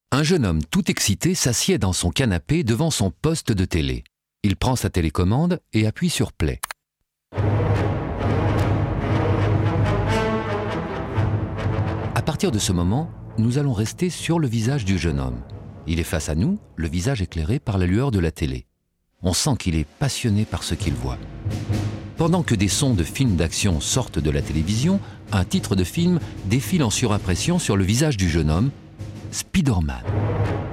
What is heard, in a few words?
narrative; Canalsat